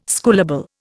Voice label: "schoolable", Indian